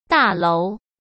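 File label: "大楼" Mandarin Chinese; hsk; chinese-flashcards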